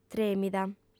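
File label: "trèmida", Sardinian, female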